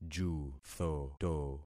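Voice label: "juthoto", concatenated syllables; Affixing experiment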